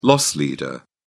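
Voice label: "loss leader", British English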